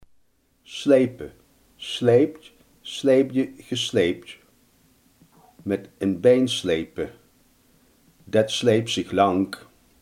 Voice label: "slepen", Limburgs

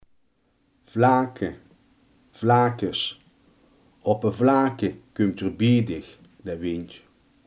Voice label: "vlakte", limburgse

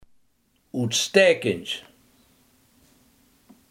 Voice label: "uitstekend", limburgse

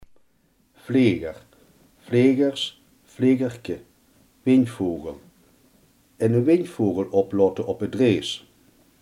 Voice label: "vlieger", limburgse

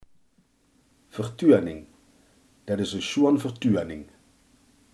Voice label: "vertoning", limburgse

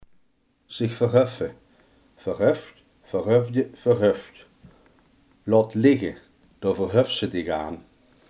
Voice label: "vertillen zich", limburgse

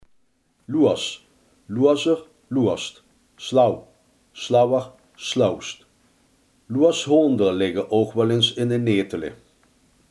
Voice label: "sluw", Limburgs

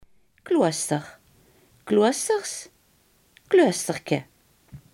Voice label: "klooster", Limburgs

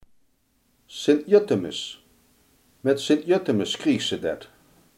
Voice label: "sint juttemis", Limburgs

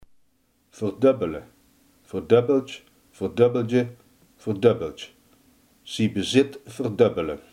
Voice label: "verdubbelen", limburgse

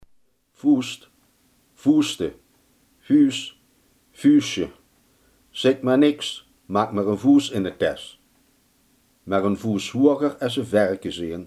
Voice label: "vuist", limburgse

